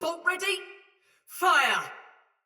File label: Shoot Arrow Female; Barks Battle; Sinecure Melee Mace Agent